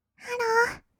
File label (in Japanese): ハロー, kawaii voice; 挨拶